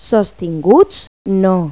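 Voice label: "sostenido", Spanish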